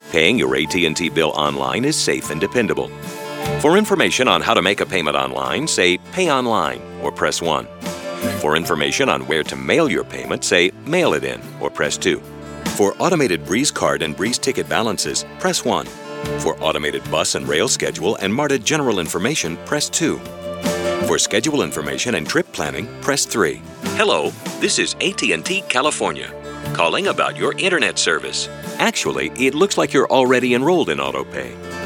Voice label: On Hold, US; Voice-over demos